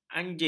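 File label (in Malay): "anjing", Malay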